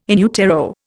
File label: "in utero", Indian